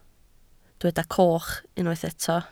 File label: "coch", Welsh